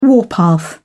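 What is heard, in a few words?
"warpath", English, British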